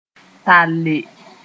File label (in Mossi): "talle", moore